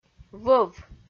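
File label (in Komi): "вӧв", Komi, female; audio dict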